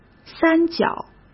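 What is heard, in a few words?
"三角", Mandarin Chinese; hsk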